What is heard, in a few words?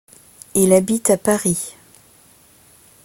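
pronunciation "il habite a paris", French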